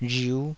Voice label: "rhiu5", taiwanese